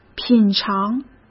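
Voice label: "品尝", Mandarin Chinese; hsk; chinese-flashcards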